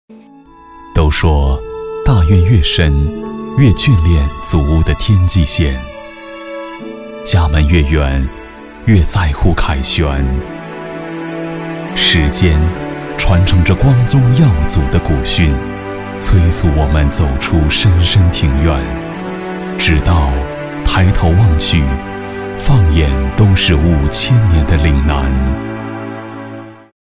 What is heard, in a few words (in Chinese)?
男 纪录片风格 抒情; 岭南样